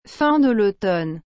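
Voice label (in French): "fin de l'automne", French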